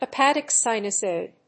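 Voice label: "hepatic sinusoid", English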